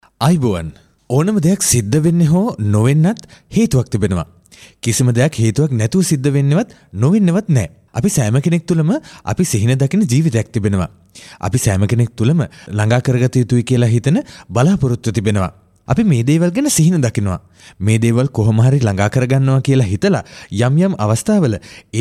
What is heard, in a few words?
sinhala